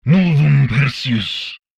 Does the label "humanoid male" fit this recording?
Yes